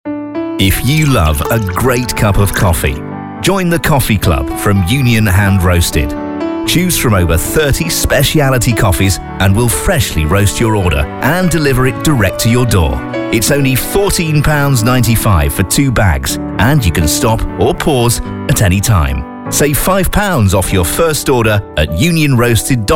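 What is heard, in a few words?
English British, male, slow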